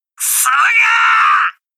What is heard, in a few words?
furious; ekuzu